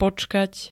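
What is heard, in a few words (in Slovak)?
"počkať", Slovak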